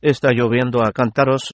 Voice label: rain, es